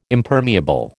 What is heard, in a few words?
"Impermeable", American